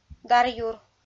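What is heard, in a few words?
"даръюр", Komi, female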